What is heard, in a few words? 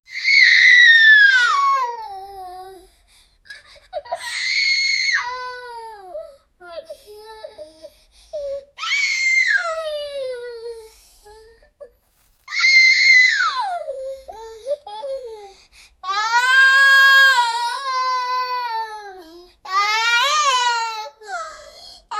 11 year old girl amazed